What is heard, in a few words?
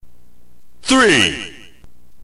narrator